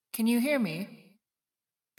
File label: spooky voice